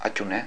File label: "attune", Basque